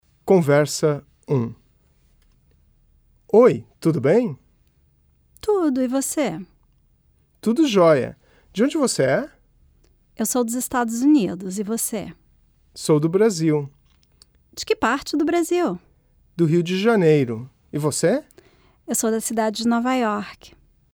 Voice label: Conversation